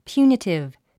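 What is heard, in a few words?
"punitive", English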